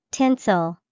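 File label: tinsel, English vocabulary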